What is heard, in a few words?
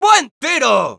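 nice shot, Spanish; Scout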